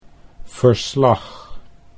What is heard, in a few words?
"verslag", Dutch